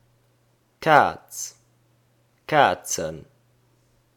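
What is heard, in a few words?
"Kaz", Luxembourgish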